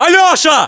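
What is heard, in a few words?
"alyosha", battlechatter, Russian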